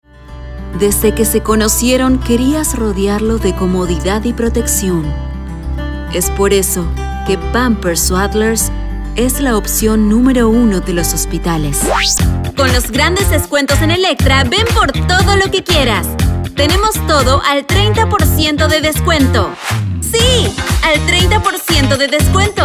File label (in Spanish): Locucion Comercial Castellano Neutro